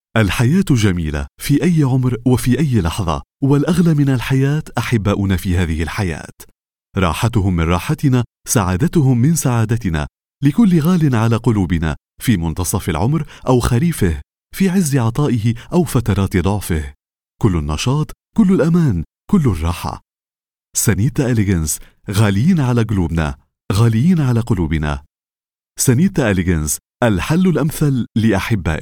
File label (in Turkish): Arapca Erkek Klasik Arapca Lublanli, seslendirme; erkek sesler